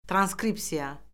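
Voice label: "transkripsiya", Azerbaijani; Orfoqrafiya Lüğəti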